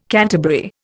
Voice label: "canterbury", Indian

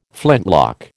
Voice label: "flintlock", American

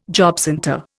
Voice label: "jobcentre", Indian

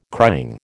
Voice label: "crying", American

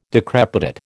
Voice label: "decrepitate", American

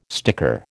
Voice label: "sticker", American

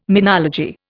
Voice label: "menology", Indian

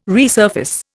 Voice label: "resurface", Indian

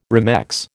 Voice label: "remex", American

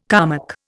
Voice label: "Kalmuck", Indian

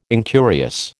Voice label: "incurious", American

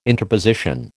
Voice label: "interposition", American

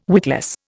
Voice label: "witless", Indian

